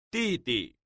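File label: "didi", Mandarin Chinese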